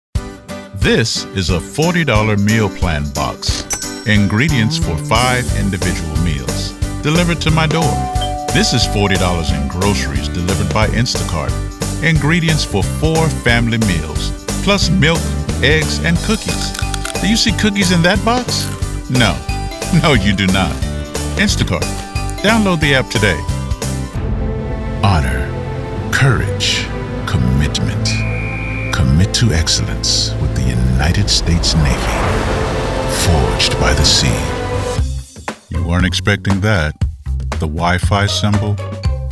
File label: Commercial